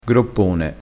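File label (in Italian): "groppone", dialettale crespinese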